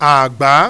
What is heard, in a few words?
"agba", Yoruba, low high tone; Nine Possible Combinations; Initial Low tone combinations